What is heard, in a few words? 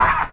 feral hurt vox; Beyond the Sword; Units